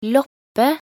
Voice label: "loppe", nb_NO

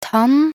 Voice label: "tann", nb_NO

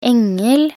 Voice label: "engel", nb_NO

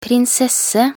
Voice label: "prinsesse", nb_NO

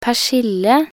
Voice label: "persille", nb_NO

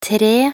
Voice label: "tre", nb_NO

